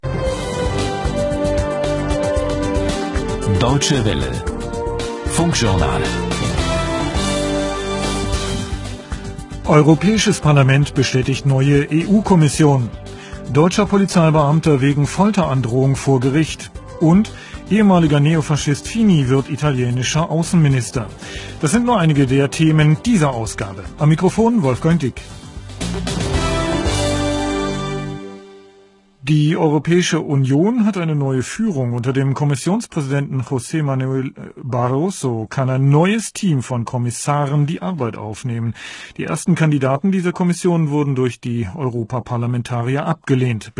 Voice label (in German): sprache und musik; Sprache und Musik mit 17.46 kBit/s; DRM-Empfängers für 3995 kHz